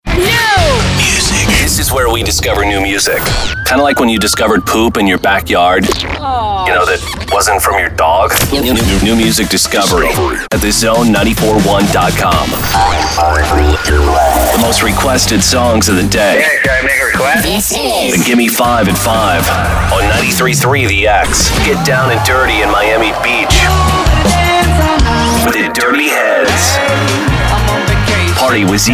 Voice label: radio men alternative, radio imaging